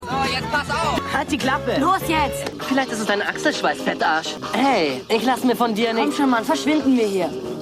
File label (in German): Jungs; Wormer Jungs